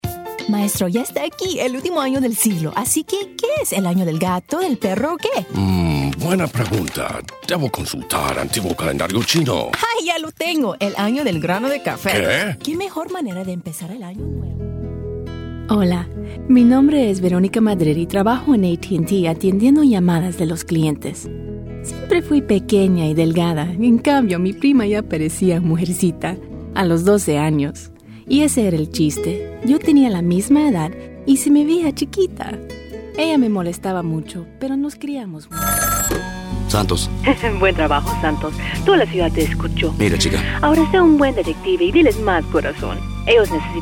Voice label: spanish females